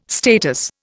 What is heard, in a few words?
"status", Indian